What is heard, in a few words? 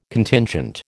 "Contingent", American